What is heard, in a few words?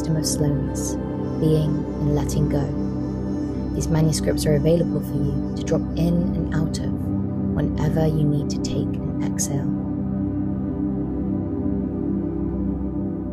Meditation